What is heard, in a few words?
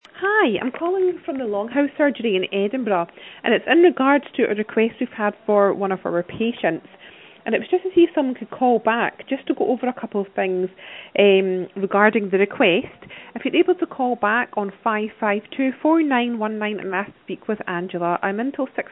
call recording